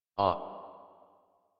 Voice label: Adult en; Dax Battle; Warframe; Duviri Paradox Quest